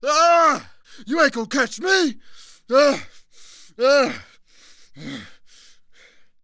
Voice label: Panic; zps; Humans; HumanCop